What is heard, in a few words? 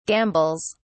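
"gambles", English, British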